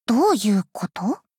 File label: doubt